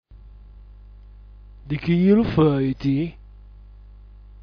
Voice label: "dchilufjt", dialetto giulianova

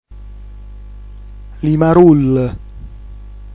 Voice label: "limarull", dialetto giulianova

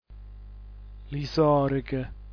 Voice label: "lisorch", dialetto giulianova

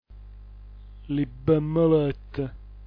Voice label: "libmlt", dialetto giulianova

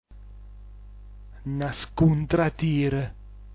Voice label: "nascuntratir", dialetto giulianova

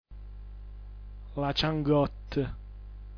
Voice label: "laciangott", dialetto giulianova

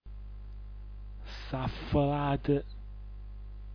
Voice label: "saflat", dialetto giulianova

